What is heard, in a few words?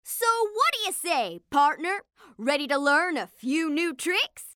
vo ENG